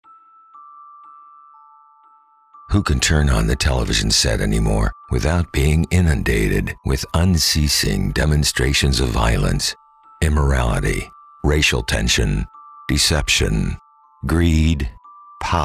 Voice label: Christian Narrative